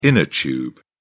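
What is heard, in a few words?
"inner tube", British English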